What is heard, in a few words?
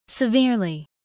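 "severely", English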